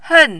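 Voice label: "hen", fourth tone, Mandarin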